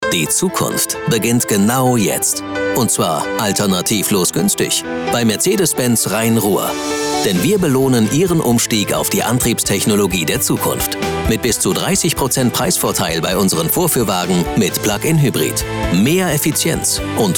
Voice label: Sprecher werbung; mercedes hybrid

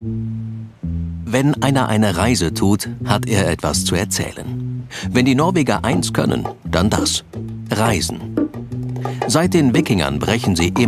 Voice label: Doku Norwegen, Sprecher

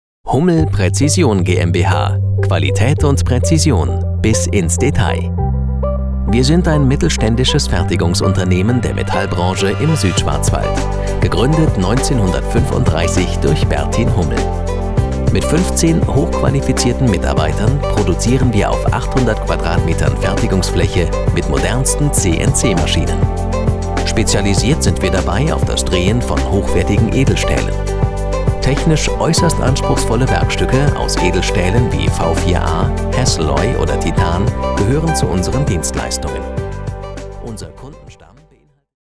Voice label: sprecher imagefilm praezise

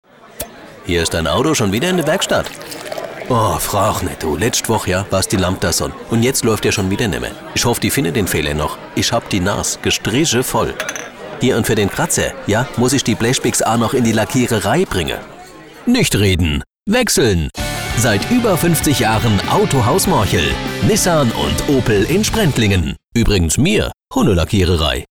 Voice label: rheinhessisch autohaus

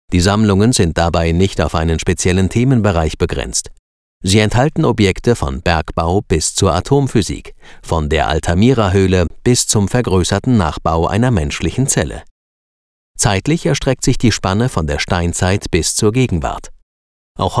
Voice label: audioguide museum

